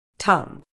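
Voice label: "tongue", British English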